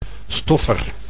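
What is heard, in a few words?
"stoffer", Dutch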